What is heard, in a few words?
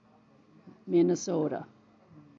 Native Minnesotan Pronunciation